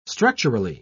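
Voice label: "structurally", British English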